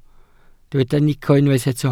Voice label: "nico", Welsh

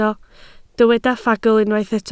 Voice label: "ffagl", Welsh

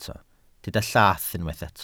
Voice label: "llath", Welsh

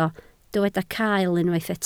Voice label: "cael", Welsh

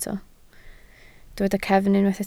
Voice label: "cefn", Welsh

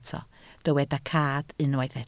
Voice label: "cad", Welsh

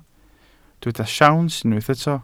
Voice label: "siawns", Welsh

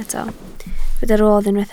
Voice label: "rodd", Welsh

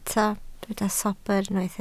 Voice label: "sobr", Welsh

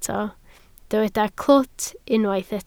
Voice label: "clwt", Welsh

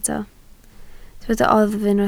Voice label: "oddf" Welsh

